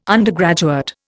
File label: "undergraduate", Indian